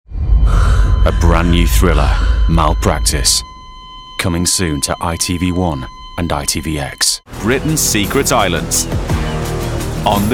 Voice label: Promo